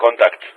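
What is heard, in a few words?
"contact", Greek; Ka-50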